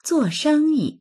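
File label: "zuò shēngyi" Mandarin Chinese; 做生意; 商売をする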